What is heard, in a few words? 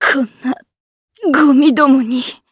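Vox Dead, Japanese; Nicky; kingsraid; voices heroes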